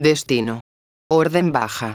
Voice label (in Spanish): destino orden baja, megafonias; exterior